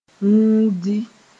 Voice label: "wũudi", moore; wear shoes

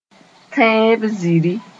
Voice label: "tẽeb ziri", Moore

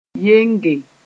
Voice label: "yenge", moore

